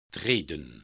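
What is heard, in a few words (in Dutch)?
"treden", Dutch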